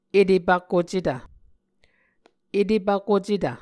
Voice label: "etepvkocetv", muscogee

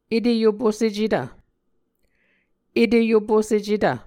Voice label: "eteyoposecetv", muscogee

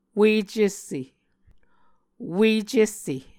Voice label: "ue cesse", Muscogee

